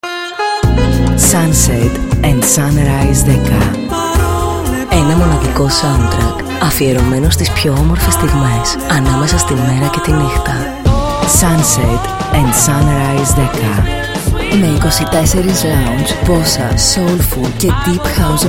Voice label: Radio Spot; Sunset Sunrise 11